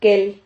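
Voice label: "quel", Spanish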